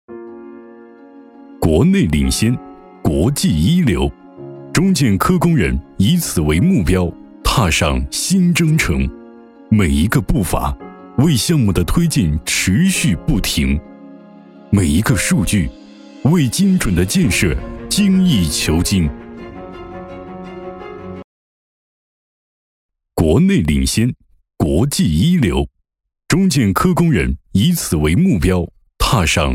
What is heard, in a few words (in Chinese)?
专题 厚重